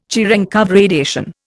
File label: "Cherenkov radiation", Indian